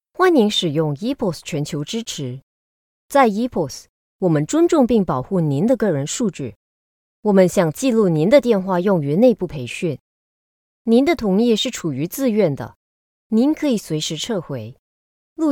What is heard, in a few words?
chinese mandarin ivr